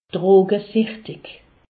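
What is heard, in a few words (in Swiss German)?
"drogesichtig", Alsatian; medecine; toxicomane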